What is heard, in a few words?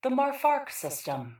PA System; Marfark